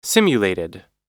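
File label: "simulated", American English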